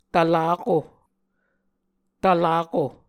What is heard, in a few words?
"tvlako", muscogee